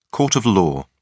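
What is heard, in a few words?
"court of law", British English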